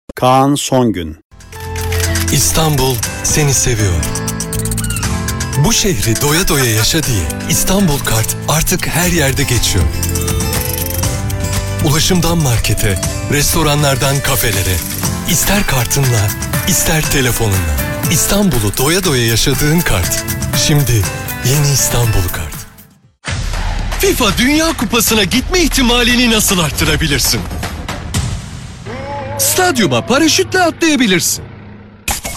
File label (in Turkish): turkce erkek